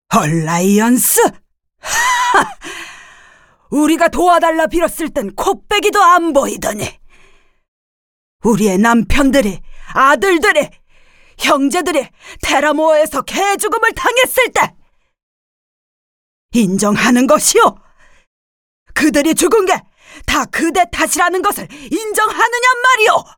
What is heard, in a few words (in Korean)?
샘플 노인 60대 억척스러운 화가 많은